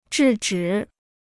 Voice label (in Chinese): "制止", Chinese